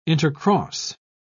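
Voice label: "intercross", English, British English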